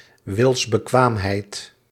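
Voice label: "wilsbekwaamheid", Dutch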